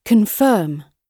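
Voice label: "confirm", British English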